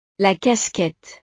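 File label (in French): "la casquette", French; ropa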